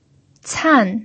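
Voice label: "can", pinyin, fourth tone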